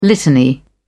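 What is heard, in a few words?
"litany", British English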